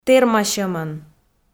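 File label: "тэрмасьӧмӧн", Komi, female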